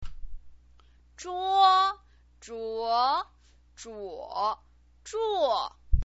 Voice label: "zhuo", Chinese pinyin

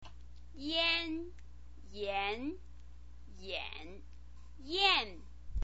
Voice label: "ian", Chinese pinyin voice

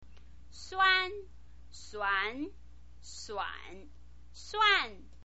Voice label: "suan", chinese pinyin

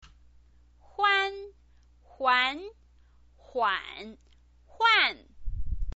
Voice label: "huan", chinese pinyin

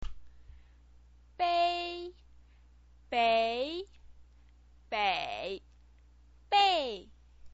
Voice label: "bei", chinese pinyin

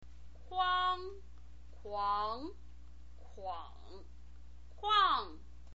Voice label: "kuang", chinese pinyin

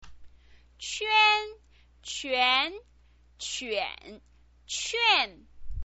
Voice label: "quan", chinese pinyin

